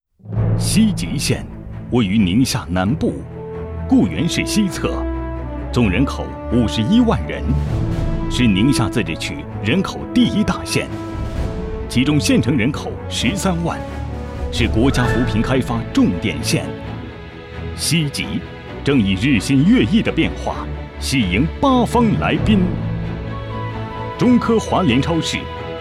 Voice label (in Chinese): 男 大气震撼; 宁夏西吉县